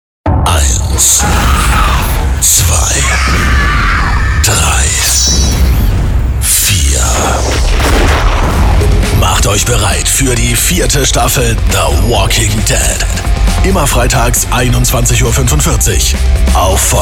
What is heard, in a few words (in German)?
tief und hart